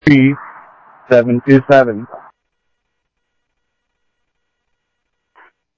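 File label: Light Rail Security Light Rail, scanner audio; ThompsonPeakHigh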